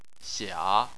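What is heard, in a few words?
"xia", Chinese, third tone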